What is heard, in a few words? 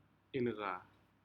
crab species "inca", Aneityum